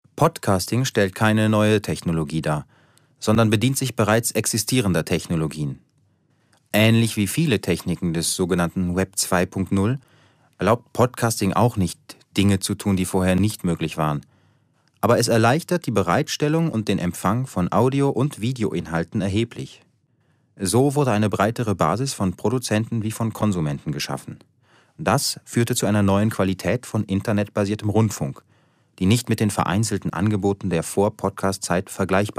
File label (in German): kommentar